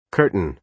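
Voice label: "curtain", American English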